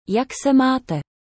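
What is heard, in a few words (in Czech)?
"jak se máte", Czech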